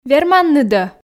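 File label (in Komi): "верманныд-ӧ", Komi, female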